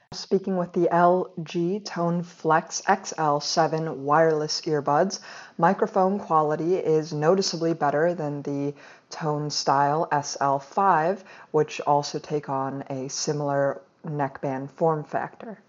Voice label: LG Tone Flex XL7 microphone

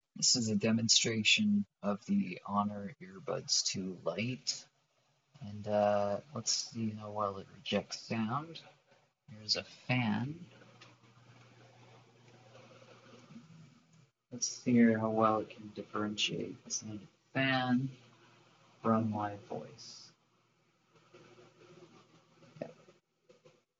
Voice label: honour earbuds 2 lite mic sample

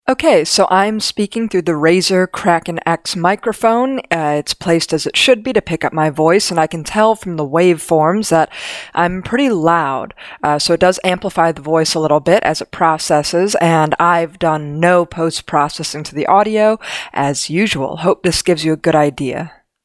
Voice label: Razer Kraken X microphone

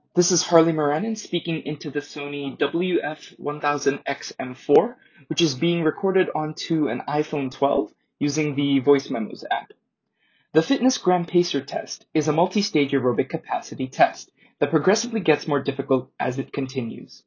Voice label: Sony WF-1000XM4 Mic Sample

